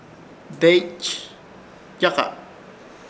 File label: "Deeychyaka", Ishir Chamacoco; puesto del sol